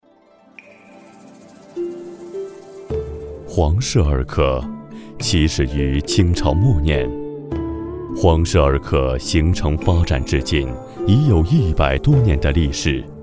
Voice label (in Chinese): 男, 纪录片, 医药; 黄氏医药